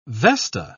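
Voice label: "vesta", British English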